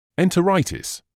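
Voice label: "enteritis", British English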